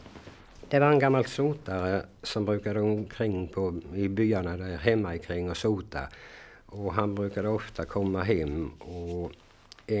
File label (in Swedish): Berättar om hur han lärt sig visan om Ramon, Folk music of Småland